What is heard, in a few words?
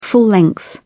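"full length", British English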